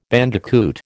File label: "bandicoot", American